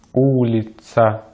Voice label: "улица", Russian; Vocales tónicas en ruso; easypronunciation

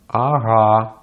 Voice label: "ага", Russian; Consonantes en ruso; easypronunciation

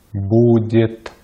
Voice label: "будет", Russian; Consonantes en ruso; easypronunciation

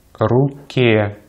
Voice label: "руке", Russian; easypronunciation

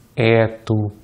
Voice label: "эту", Russian; Vocales átonas en ruso; easypronunciation

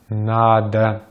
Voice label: "надо", Russian; Consonantes en ruso; easypronunciation

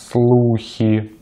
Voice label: "слухи", Russian; Consonantes en ruso; easypronunciation

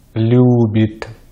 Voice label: "любит", Russian

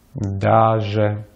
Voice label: "даже", Russian

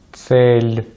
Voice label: "цель", Russian; Consonantes en ruso; easypronunciation

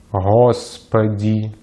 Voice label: "господи", Russian; Consonantes en ruso; easypronunciation

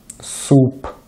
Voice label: "суп", Russian; easypronunciation

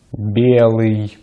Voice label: "белый", Russian

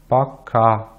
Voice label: "пока", Russian; Consonantes en ruso; easypronunciation

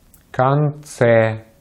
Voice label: "конце", Russian; easypronunciation

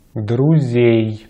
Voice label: "друзей", Russian; Consonantes en ruso; easypronunciation

